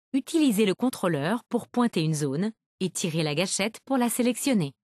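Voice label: select zone help, voiceovers, Canadian French; retaildemo; Windows; Mixed Reality Learning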